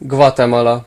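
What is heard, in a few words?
"guatemala", Hungarian; gcompris; geography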